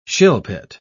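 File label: "shilpit", British English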